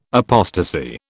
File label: "apostasy", British